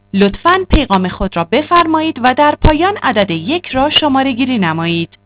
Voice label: Voice Mail; Telsa Recorder Server; ivr